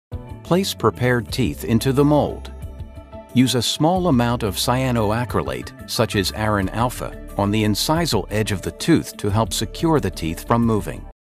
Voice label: Medical and Healthcare Mature Compliance Technical